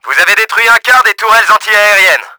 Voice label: FLAK, french; Battle Engine Aquila; XBOX